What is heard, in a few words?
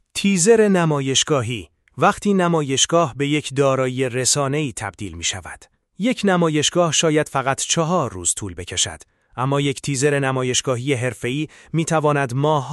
exhibition teaser voiceover; jellstick; exhibition documentary